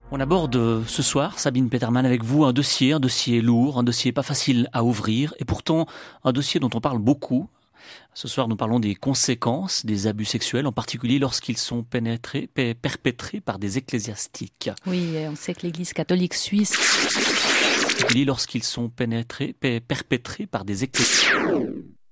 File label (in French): radio suisse emission religieuse lapsus